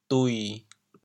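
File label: "dui7", Teochew, chaoyang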